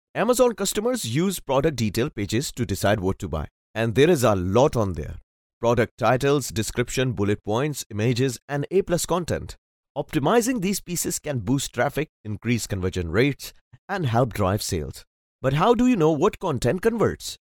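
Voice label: English Commercial; GoLocalise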